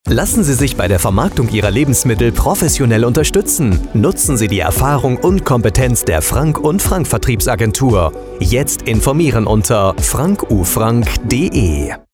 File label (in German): funkspot; frank frank vertriebsagentur gmbh